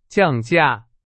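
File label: Chinese, vocabulary; hsk4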